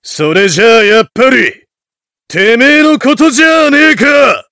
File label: heavy taunts